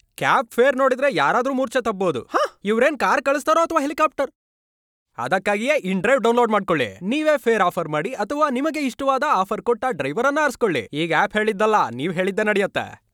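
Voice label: Kannada, male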